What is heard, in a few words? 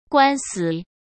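"官司", Mandarin Chinese; hsk; chinese-flashcards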